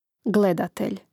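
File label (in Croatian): "gledatelj", hrvatski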